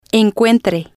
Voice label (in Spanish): encuentre, Spanish